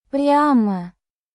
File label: russian phrases